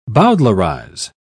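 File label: "bowdlerise", English, British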